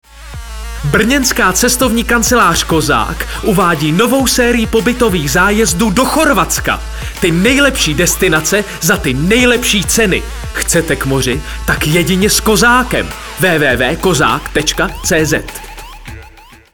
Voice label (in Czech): ukazka reklama